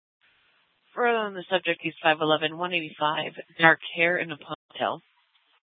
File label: Mesa PD Fiesta Dispatch